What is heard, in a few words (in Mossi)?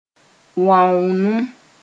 "wãoonem", moore